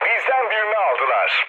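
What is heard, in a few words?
Turkish aircraft destroyed, mood low, speech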